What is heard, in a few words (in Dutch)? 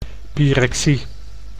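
"pyrexie", Dutch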